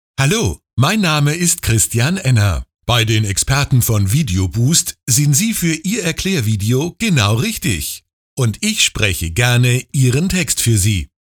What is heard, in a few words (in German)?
sprechdemo